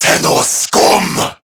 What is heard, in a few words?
Player Spotted, Male, Battle Barks; Dialog; Warframe; CorruptedGrineerMale